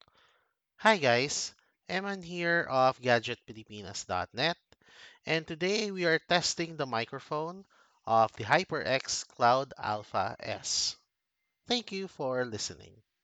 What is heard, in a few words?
Mic Test; HyperX Cloud Alpha S